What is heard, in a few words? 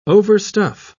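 "overstuff", English, British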